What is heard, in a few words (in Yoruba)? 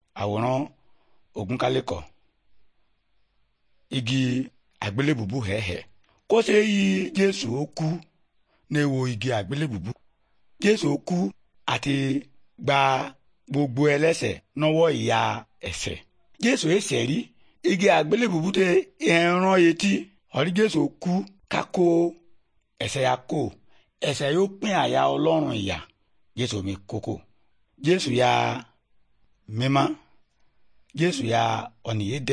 good news yoruba, bible